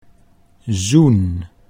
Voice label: "zoen", Dutch